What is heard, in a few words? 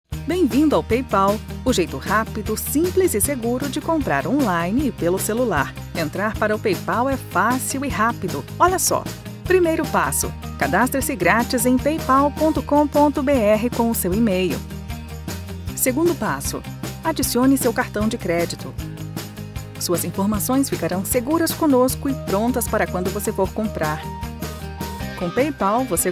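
Brazilian Portuguese, female, explainer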